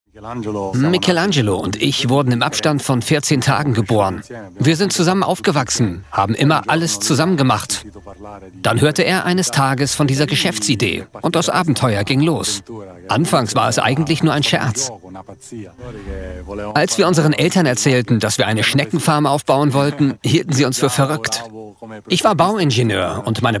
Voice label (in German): DOKU VO; voice; SIZILIEN